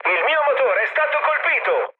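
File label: Italian damaged engine mood med, speech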